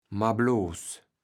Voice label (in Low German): "marbloß", kaevels platt